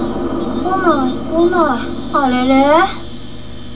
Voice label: Japanese